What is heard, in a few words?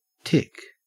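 "tick", Australian English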